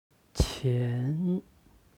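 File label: "qian", Chinese; 钱; お金; HSK Level One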